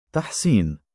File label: "ta7siin", Arabic, male; given name